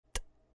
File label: "T", VOX